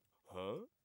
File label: "Huh" Male